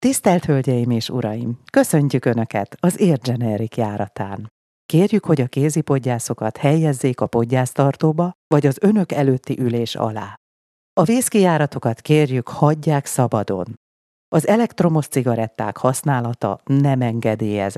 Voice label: voiceover Airline

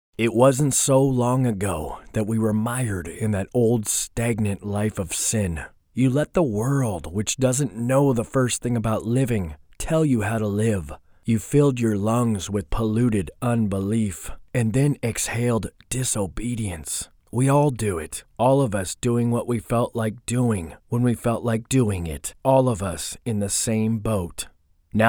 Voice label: voice bible

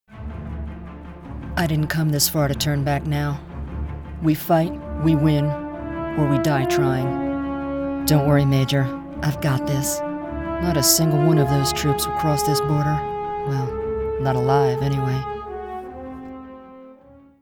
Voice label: badass

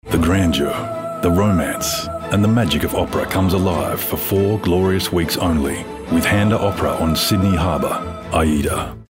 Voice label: Radio; Handa Opera

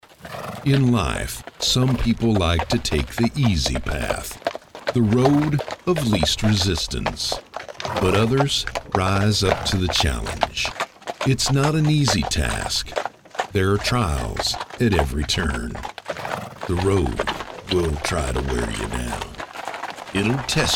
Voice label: Texan; Voquent

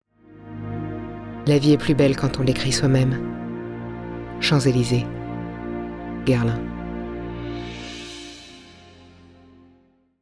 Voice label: French Ad

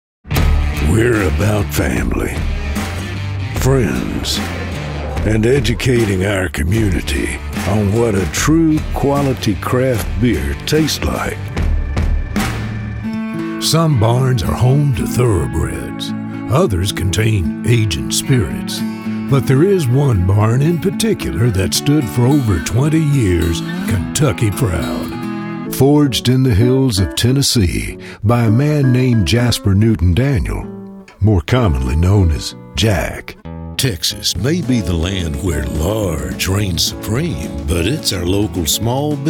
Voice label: Southern Western Voices

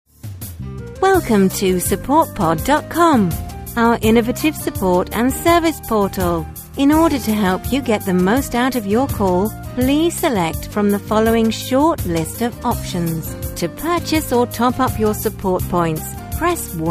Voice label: on hold

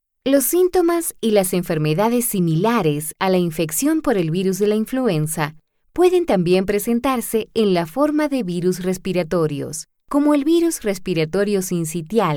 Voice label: Elearning Medical Lat Am Spanish

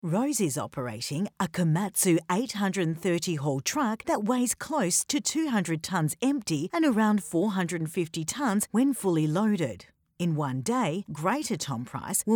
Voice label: documentary scene

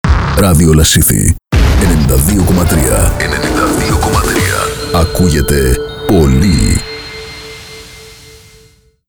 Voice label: RADIO ID

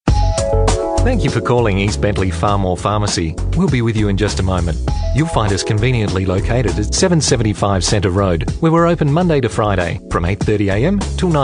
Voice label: Messaging on hold

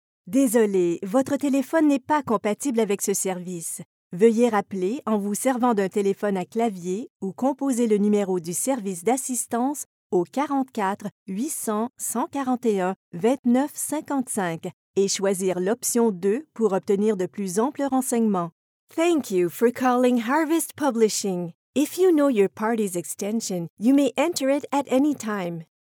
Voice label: IVR phone

